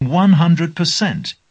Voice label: 100 percent, male spoken word; MALE MISC

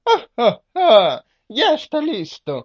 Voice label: ha ha ha listo, male; MALE MISC

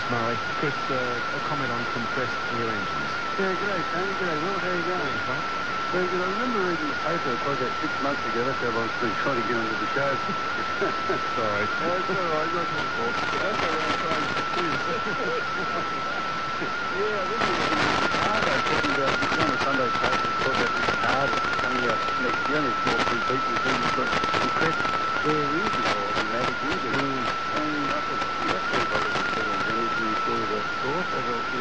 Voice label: crackly radio with speaking; MALE MISC

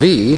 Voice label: "v", male British; Alphabet

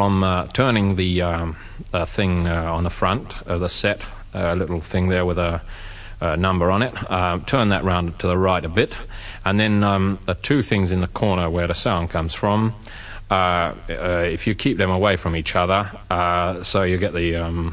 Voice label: instructions for stereo users, male spoken word; MALE MISC

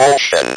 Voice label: speak and spell "OCEAN"; WORDS

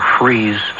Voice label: "freeze", male; MALE MISC

